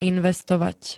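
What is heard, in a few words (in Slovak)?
"investovať", Slovak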